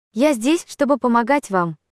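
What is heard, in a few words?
"I'm here", Russian; imac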